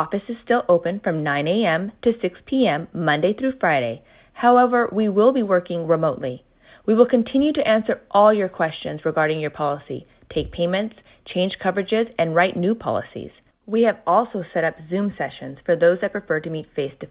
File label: COVID-19 Update Message